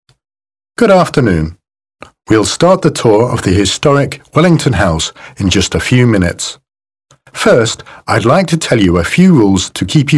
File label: British M, British English